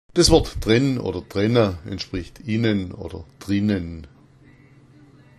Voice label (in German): drenn, schwaebisch